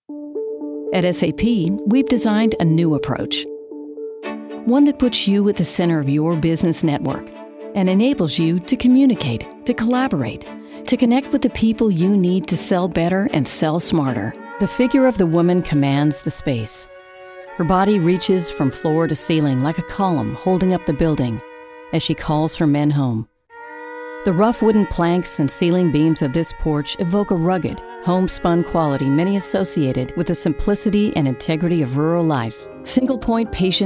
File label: Narration